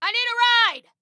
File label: Need Ride, Female